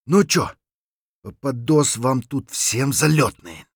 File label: scav mutter, voice; Escape from Tarkov; scav6